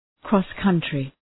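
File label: "cross country", English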